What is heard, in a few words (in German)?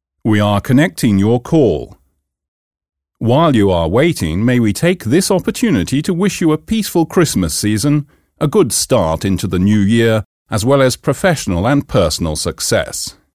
weihnachtsansage